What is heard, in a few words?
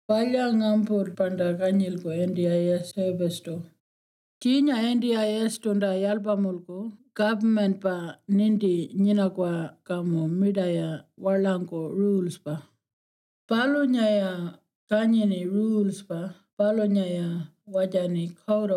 Martu; NDIS